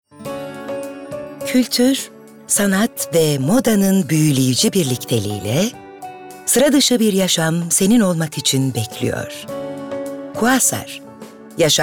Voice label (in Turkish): REKLAM, tr